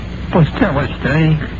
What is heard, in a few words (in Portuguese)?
voz estranha